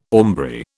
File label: "aumbry", British